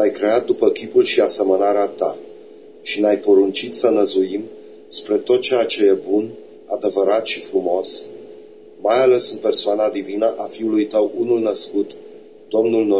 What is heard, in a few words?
internet prayer romanian